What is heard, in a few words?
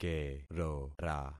"kerora", concat syllables; Affixing experiment